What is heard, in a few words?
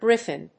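"gryphon", English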